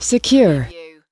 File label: US female secure